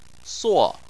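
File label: "suo", Chinese, fourth tone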